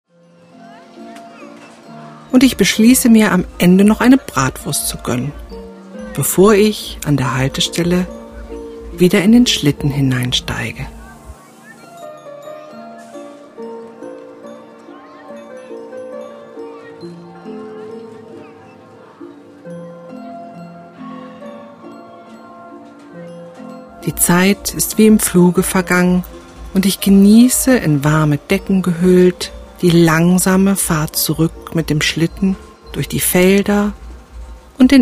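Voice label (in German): entspannung weihnachten traumhafte winter phantasiereisen, weibliche Stimme; Mein Ausflug mit dem Pferdeschlitten zu einem märchenhaften Weihnachtsmarkt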